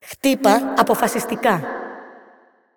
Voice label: el GR assist, VO; Karma; bloom minigame

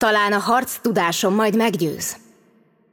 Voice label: hu HU Intro, VO; Yunara; bloom minigame

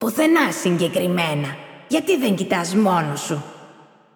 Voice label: el GR Game Outro, VO; Kennen; bloom minigame

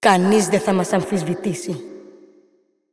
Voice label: el GR assist, VO; Karma; bloom minigame

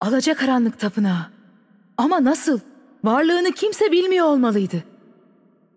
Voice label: tr TR Game Outro, VO; bloom minigame

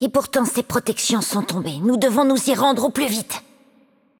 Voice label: fr FR Game Outro, VO; Kennen; bloom minigame

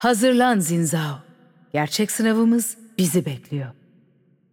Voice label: tr TR Game Outro, VO; bloom minigame

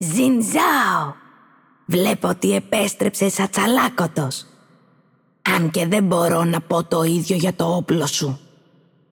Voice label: el GR Game Outro, VO; Kennen; bloom minigame

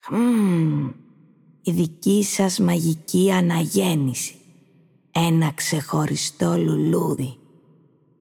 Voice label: el GR Game Intro, VO; Kennen; bloom minigame

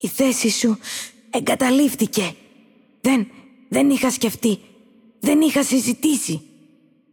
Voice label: el GR Game Outro, VO; Kennen; bloom minigame

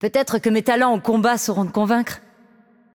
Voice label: fr FR Intro, VO; Yunara; bloom minigame

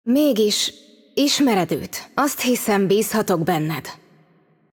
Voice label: hu HU Intro, VO; Yunara; bloom minigame

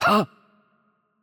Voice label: pl PL Attack Light; bloom minigame

